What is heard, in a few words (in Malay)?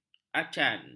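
"acan", Malay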